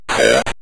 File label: "set", synth voice; Kenney's Sound Pack